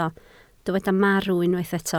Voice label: "marw", Welsh